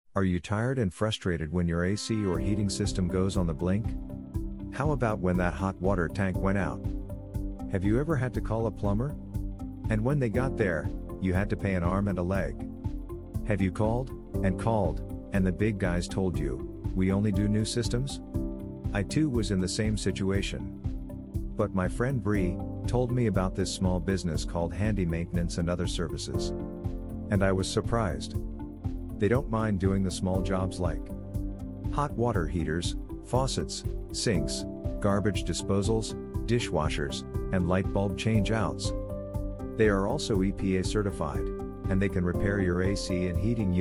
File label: commercial